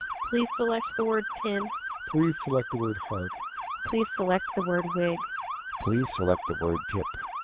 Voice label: EVS NB 13200 siren; Codec